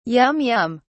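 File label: "yum yum", British English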